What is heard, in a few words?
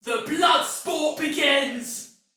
See Player Shout, Female, Soldier; Dialog; Barks; Battle; Sinecure